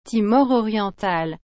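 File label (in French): "Timor-oriental" French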